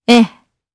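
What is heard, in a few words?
Vox, voices, Japanese; Ripine; kingsraid audio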